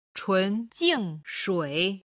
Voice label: "chun jing shui", Chinese

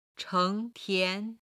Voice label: "cheng2 tian2", Chinese

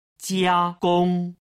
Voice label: "jiagong", Chinese

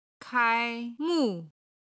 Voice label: "kai1mu4", Chinese

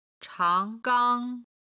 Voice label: "chang2 gang1", Chinese

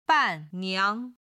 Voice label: "banniang", Chinese

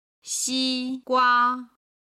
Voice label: "xigua", Chinese

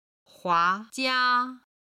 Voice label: "hua2jia1", Chinese

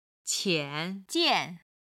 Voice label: "qian3jian4", Chinese

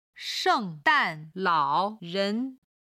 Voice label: "sheng4 dan4 lao3 ren2", Chinese

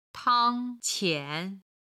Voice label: "tang1qian3", chinese

